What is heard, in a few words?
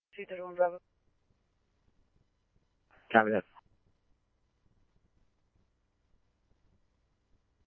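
scanner, Phoenix Police Department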